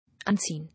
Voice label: "Unseen", English